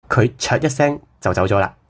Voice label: "oet tsoet35", cantonese